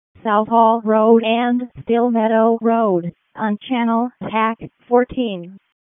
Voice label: EMS ALERT; wake